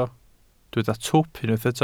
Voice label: "twp", Welsh